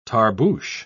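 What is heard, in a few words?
"tarboosh", British English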